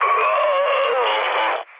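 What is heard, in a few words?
Serbian damaged pilot mood med, speech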